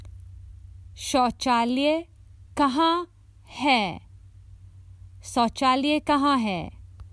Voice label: Common Hindi Phrases for travel Where is the toilet